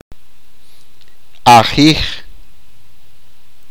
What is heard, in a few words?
"reed", achi